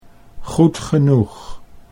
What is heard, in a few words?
"goed genoeg", Dutch